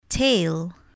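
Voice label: "tale", English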